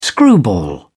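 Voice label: "screwball", British English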